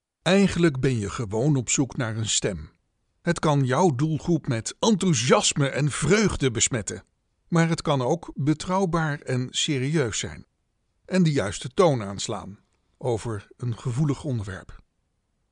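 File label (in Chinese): 荷兰语男_外语_小语种_样音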